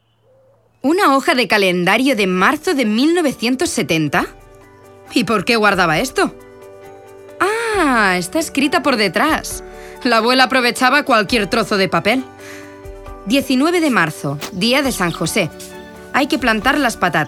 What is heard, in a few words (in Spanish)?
audioguia